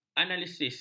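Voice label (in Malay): "analisis", Malay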